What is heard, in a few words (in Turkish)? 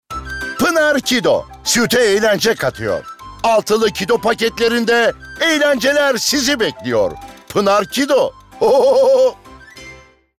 Neseli